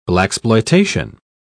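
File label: "blaxploitation", British English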